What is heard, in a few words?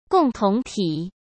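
"共同体", Mandarin Chinese; chinese flashcards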